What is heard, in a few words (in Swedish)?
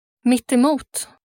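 "mittemot", Swedish; 345-mittemot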